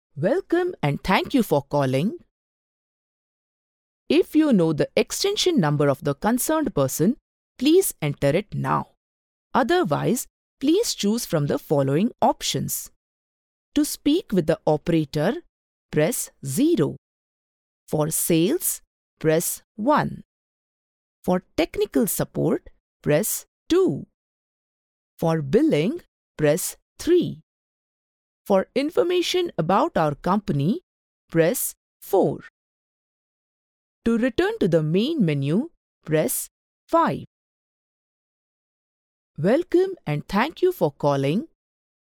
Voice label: ivr english